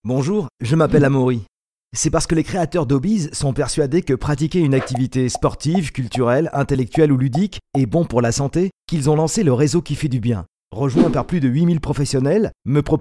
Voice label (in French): french e-learning